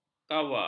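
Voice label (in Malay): "kawa", Malay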